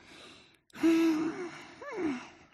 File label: IMPATIENCE; mirumiru